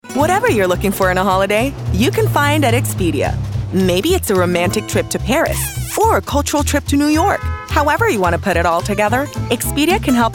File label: English USA Commercial VO